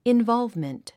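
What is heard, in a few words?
"involvement", English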